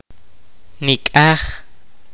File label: kaqchikel; half